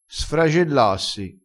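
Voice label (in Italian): "sfragellassi", dialettale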